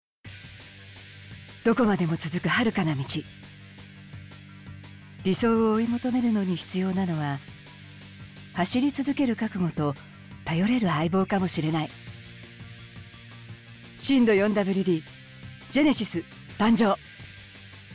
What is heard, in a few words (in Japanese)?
低音